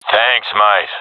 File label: thankful, professional epic; vo; Source Model Packs; Counter Strike Model Pack; CS2